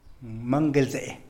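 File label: "mangelzee", Tlacochahuaya Zapotec; type of bird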